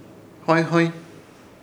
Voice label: "hõyhõy", Ishir Chamacoco